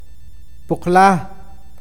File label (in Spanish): "polvo", awakateko